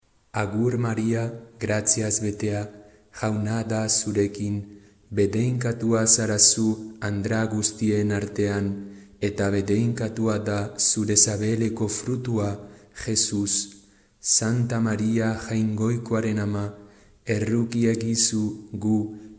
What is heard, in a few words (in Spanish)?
ave maria euskera normal